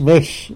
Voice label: "muzh", blond, tlacolula

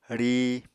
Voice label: "ríi", Apatani

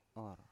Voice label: "or" to count, Biak

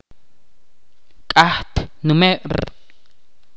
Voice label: "cuento" in Ch'orti'

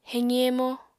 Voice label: "heqi-hemo", apatani

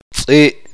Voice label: "perro", Uspanteko

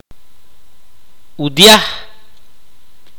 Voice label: "escuchar", mopan

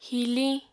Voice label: "hiliñ", apatani

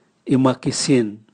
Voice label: bush kitchen, Raga

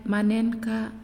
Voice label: "Grease", Naasioi

